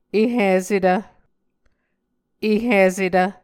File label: "ehisetv", Muscogee